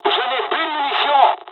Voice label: dialog, dialogs french; War Thunder

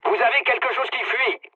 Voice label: tank damaged mood med, speech fr; War Thunder

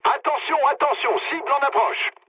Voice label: near waypoint mood low, speech fr; War Thunder

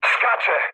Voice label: bailout mood med, speech pol; War Thunder

